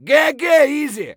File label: scav mutter, voice; Escape from Tarkov; scav2